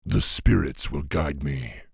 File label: pissed; Thrall